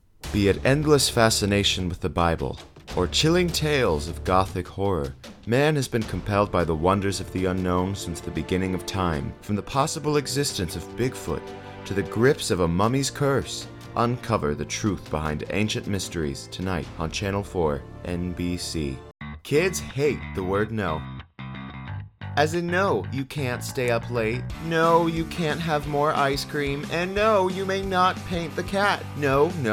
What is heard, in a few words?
Commercial